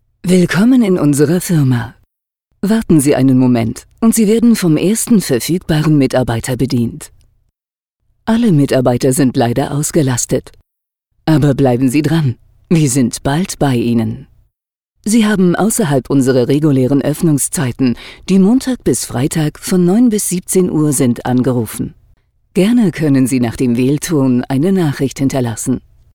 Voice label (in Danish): DE FORMEL KVINDE; Stemmebasen